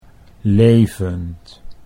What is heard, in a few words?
"levend", Dutch